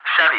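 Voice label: "Chevy", English; ATC callsign; DCS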